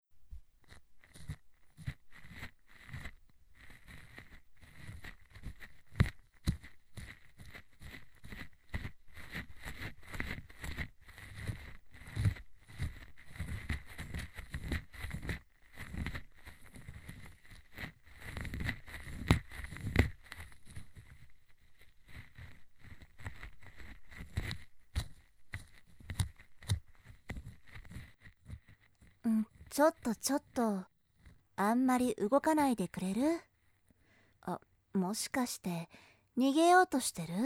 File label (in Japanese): 耳かき(右耳、左耳)